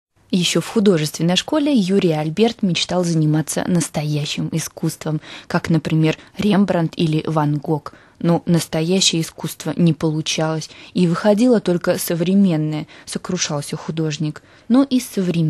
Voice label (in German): russisch native